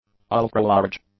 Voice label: "ultralarge", English; adjectives